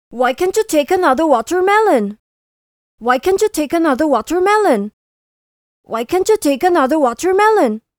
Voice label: Male Avatar Loud and Fast

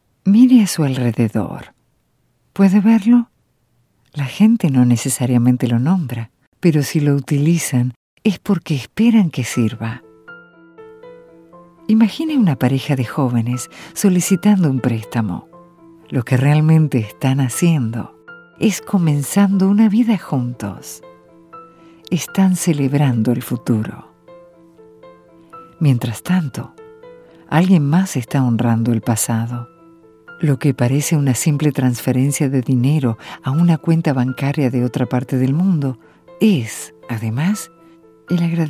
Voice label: Narration Soft Sell

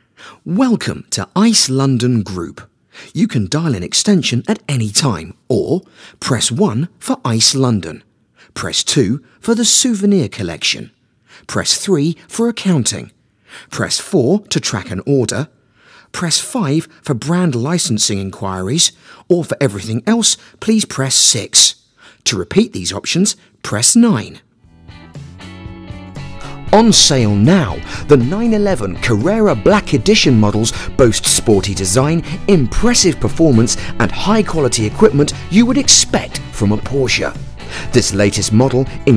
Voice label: IVR

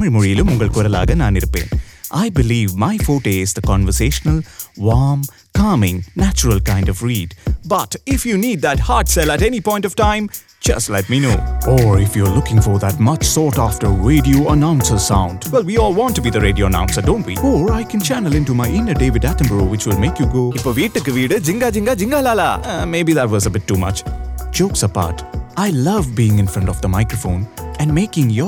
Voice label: Intro voice

